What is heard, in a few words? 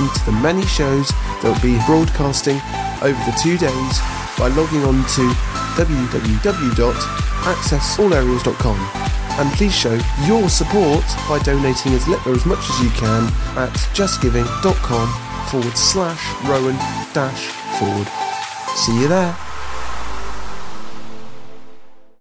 radio marathon advert; shine